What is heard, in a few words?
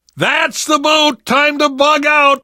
arrive boat initial, survivor voice